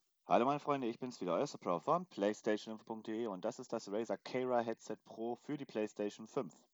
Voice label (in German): Headset Testaufnahme; Razer Kaira Pro